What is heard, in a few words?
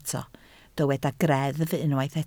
"greddf", Welsh